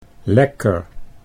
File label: "lekker", Dutch